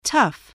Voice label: "tough", voice